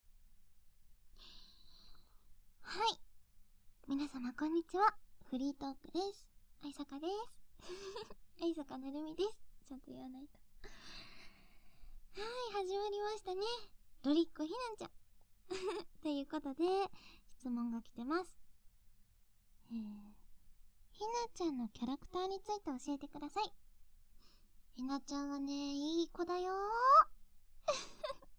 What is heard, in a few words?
FREE TALK, ASMR